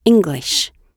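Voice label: "english", British English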